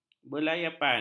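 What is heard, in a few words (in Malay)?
"berlayapan", Malay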